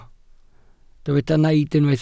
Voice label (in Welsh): "naid" Welsh